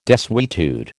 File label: "desuetude", American